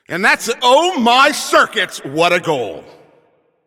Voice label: Goal Scored, Announcer

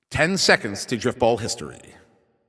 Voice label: 10 Seconds To Game Start, Announcer

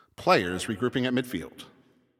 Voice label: Announcer Waiting For Players After Score

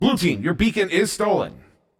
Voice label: Stolen Blue, Announcer

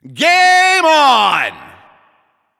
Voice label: Game Start, Announcer

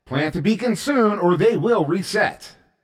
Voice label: Plant It Soon, announcer

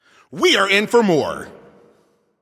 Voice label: Announcer Overtime Starts

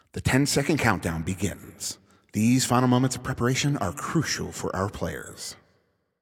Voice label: 10 Seconds To Game Start, Announcer

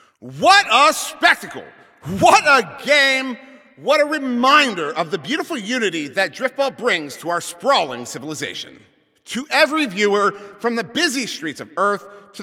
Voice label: Announcer Game Ends

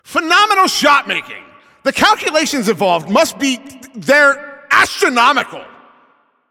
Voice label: Announcer Especially Good Shot

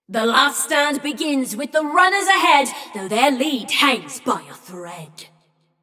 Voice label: Runners Lead, Announcer; Flux; Scraprun

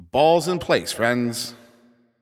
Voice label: Ball Placed in Start Ring, Announcer

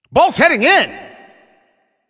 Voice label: Shot On Goal, Announcer

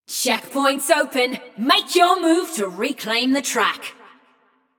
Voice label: Checkpoint Opened, Announcer; Flux; Scraprun

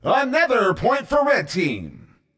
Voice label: Point Red, CTF Announcer

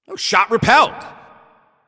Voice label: Announcer Goalie Save

